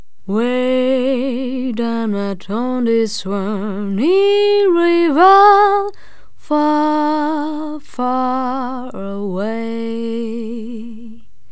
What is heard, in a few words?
voice dry